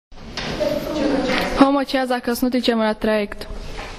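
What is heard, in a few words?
"homo ca", Croatian dialect; homo ća hajdemo, ajmo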